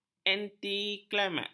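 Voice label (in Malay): "antiklimaks", Malay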